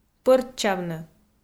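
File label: "пӧрччавны", Komi, female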